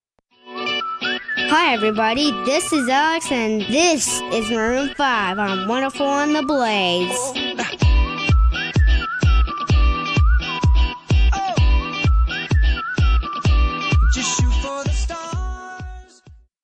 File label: aircheck